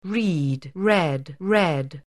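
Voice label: "read", English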